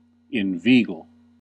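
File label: "inveigle", British English, US English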